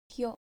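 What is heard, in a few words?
"hyo", Japanese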